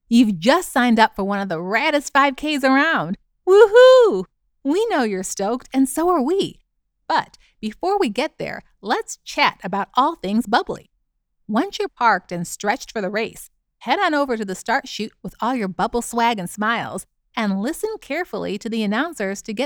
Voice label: Presentations Educational Informative Energetic